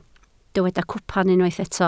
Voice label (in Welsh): "cwpan", Welsh